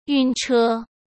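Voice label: "晕车", Mandarin Chinese; chinese-flashcards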